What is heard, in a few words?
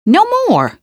cit hit, female; Half-Life 2; trainyard